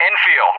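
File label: "Enfield", English; JTAC; Callsign; DCS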